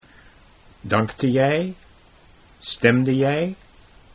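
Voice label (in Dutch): "dank je jij stem de jij", Dutch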